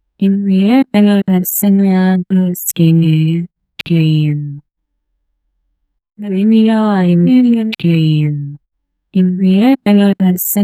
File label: a female voice repeats; fictional